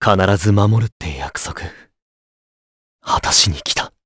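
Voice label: voice, Japanese; Clause ice; kingsraid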